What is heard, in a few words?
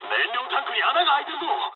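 Japanese tank damaged mood low; War Thunder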